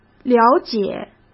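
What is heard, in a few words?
"了解", Mandarin Chinese; chinese-flashcards; hsk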